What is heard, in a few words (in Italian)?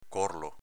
"corlo", dialetto veneto; córlo s.m. arcolaio